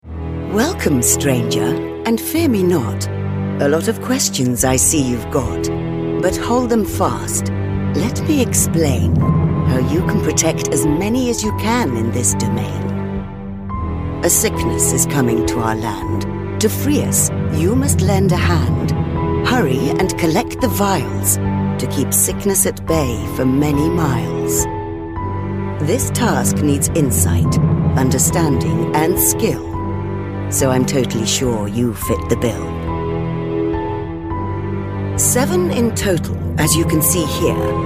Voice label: dramatic

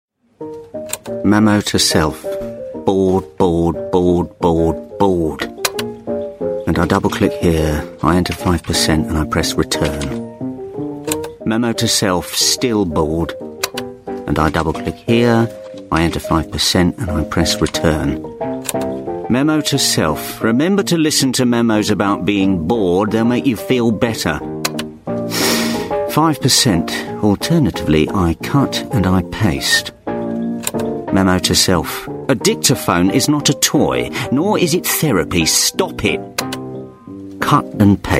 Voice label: drama

